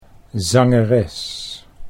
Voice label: "zangeres", Dutch